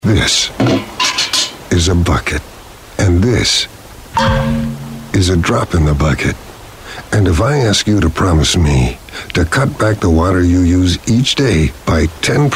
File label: Commercial